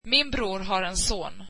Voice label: swedish my brother has one son